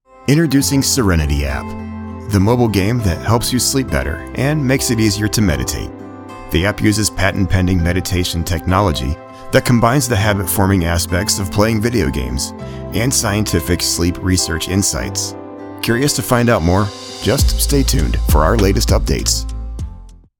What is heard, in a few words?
sleep, Calm, Grounded App Commercial